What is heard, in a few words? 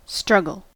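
"struggle", American English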